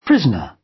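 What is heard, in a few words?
"prisoner", British English; Crime and punishment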